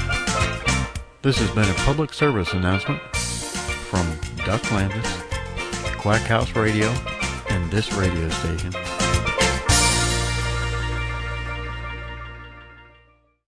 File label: Drinking And Driving PSA